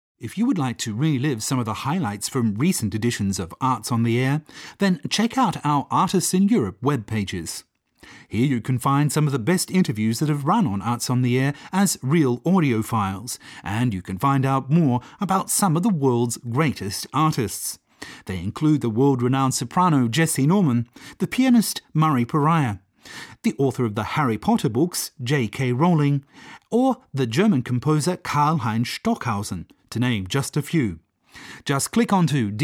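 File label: narration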